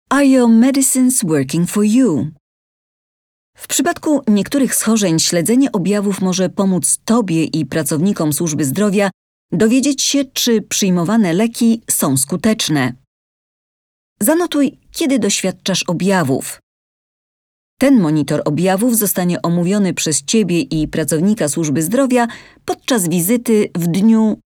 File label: Are the Medicines Working Symptom tracker Polish